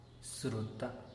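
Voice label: "sruta", Teotitlán del Valle Zapotec; muy linda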